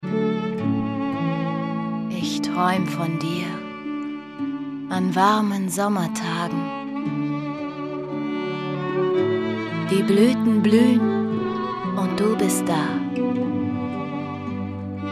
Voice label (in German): Lyrik Sprachprobe; VOICE OVER - Lyrik